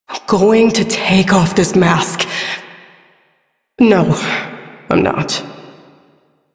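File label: Female Sedentary; Warframe; Duviri; Duviri Ambient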